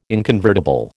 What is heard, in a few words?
"inconvertible", American